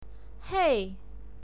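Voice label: "hei", Mandarin Chinese pinyin, fourth tone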